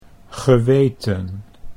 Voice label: "geweten", Dutch